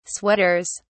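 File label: "sweaters", British English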